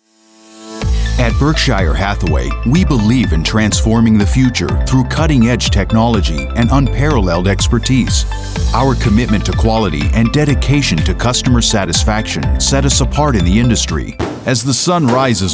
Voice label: NARRATION